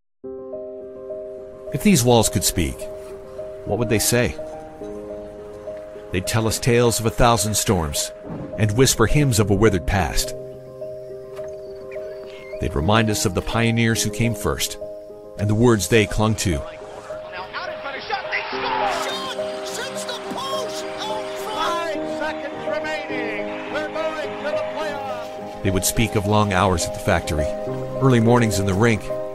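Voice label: male, Canadian English, narration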